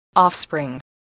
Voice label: "offspring", voice, spelling